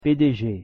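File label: "PDG", pronunciation, French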